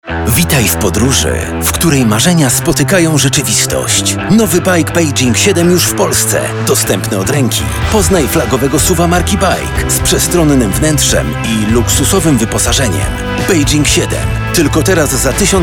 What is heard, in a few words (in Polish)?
reklama baic 7